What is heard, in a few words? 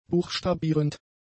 "buchstabierend", German; adjectives